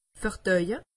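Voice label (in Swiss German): "verdaue", Alsatian; medecine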